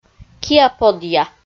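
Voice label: "киа-подъя", Komi, female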